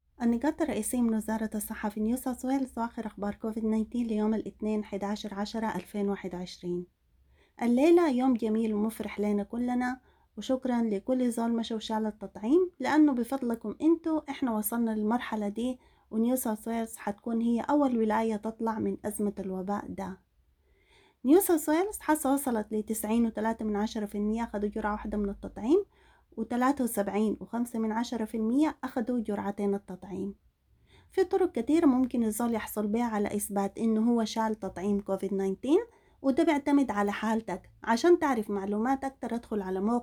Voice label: sudanese; covid-19 coronavirus; covid-19 daily key points